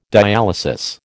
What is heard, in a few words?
"dialysis", American